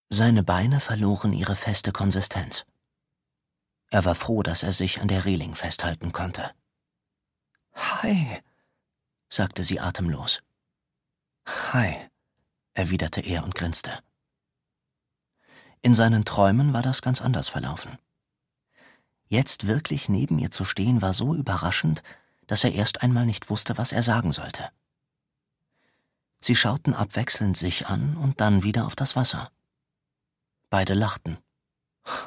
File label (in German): Hoerbuch; Rendezvous in 10 Jahren